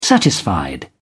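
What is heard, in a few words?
"satisfied", British English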